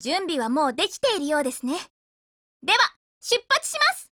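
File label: attack, Japanese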